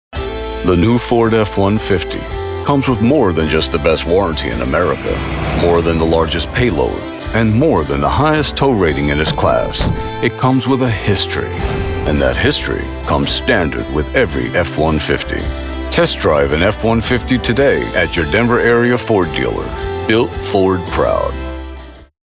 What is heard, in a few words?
Automotive Voice Over; F150 History